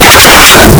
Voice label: bruh bass boosted